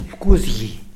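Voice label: "pkuzgi", Tlacochahuaya Zapotec; firefly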